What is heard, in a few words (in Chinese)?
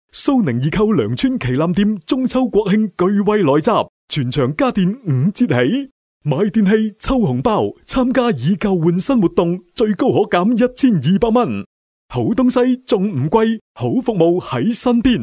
粤男 广告 促销; 苏宁易购